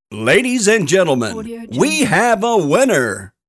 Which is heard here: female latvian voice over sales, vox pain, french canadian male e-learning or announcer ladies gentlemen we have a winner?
announcer ladies gentlemen we have a winner